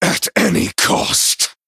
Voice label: male night elf